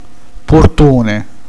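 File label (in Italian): "portone", Sicilian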